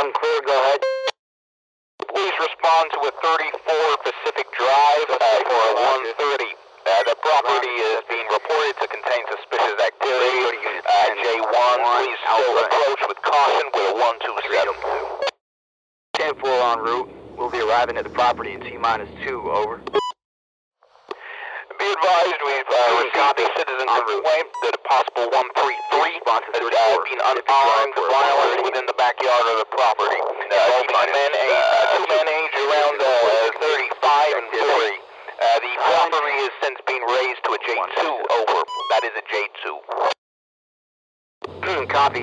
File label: Radio Police American; noise creations